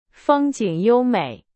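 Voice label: Chinese, example sentence; hsk4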